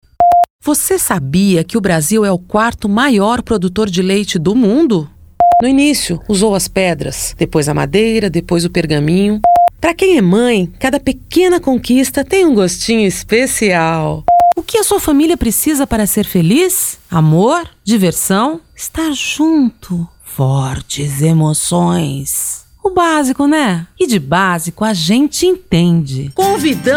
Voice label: locutora

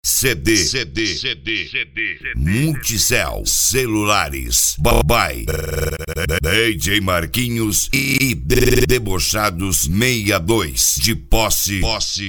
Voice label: locutor; consultar preco

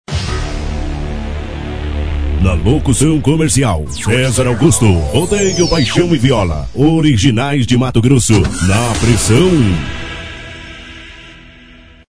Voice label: vinheta locutor comercial

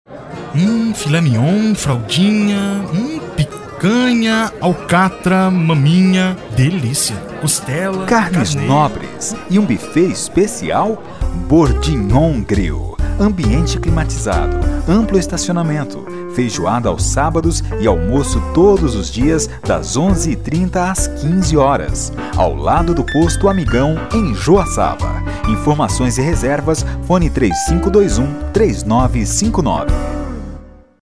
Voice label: locutor spot; Bordignon grill

